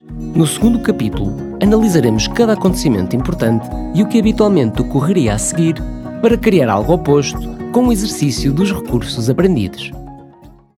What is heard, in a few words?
portuguese e-learning, voice actors; professioneller portugiesischer Synchronsprecher